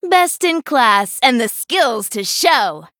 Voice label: Female Human